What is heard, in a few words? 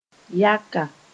"yaka", Moore